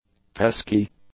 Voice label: "pesky", english; adjectives